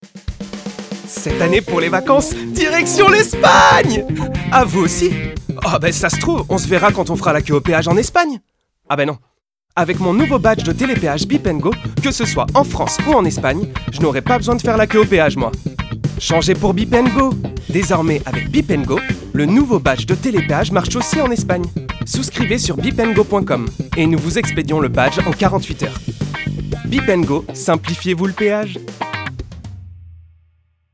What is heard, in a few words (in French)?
Essai Spot pub; Bip